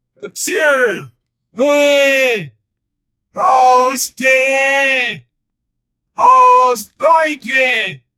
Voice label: male teacher yelling and, school